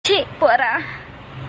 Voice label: Chi Pora Telugu Voice